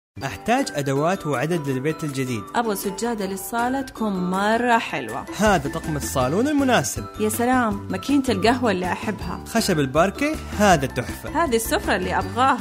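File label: Arabic, Saudi Arabia, male, voice over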